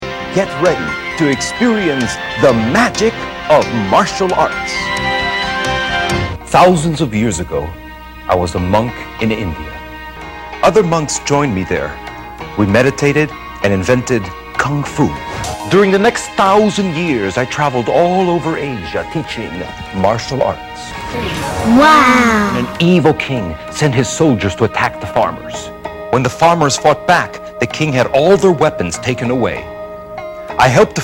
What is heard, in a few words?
Children Story Narration